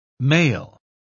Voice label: male